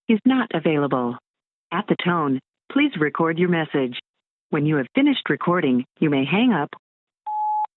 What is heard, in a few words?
voicemail